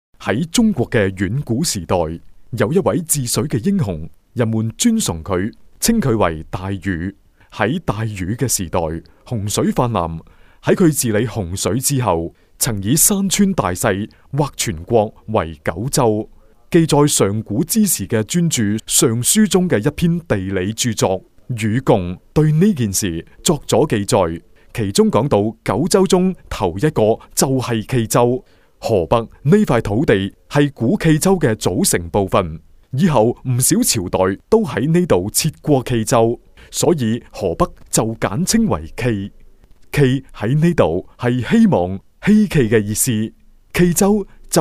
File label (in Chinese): 粤男; 寄望京畿